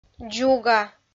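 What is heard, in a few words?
"дзуга", Komi, female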